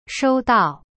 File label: "收到" shou dao, chinese; cyberchinese